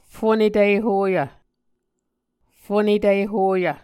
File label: "fonetihoyv", muscogee